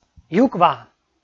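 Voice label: "юква", Komi, male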